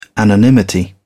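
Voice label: "anonymity", British English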